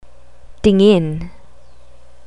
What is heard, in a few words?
"tingin", Tagalog